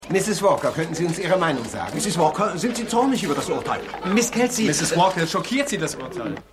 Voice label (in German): Reporter